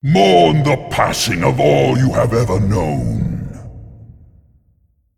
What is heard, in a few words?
Male Demon Idle